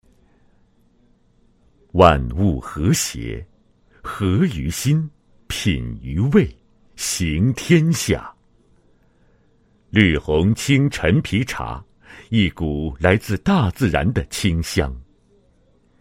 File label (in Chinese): 男 专题, 配音; 绿红青陈皮茶宣传专题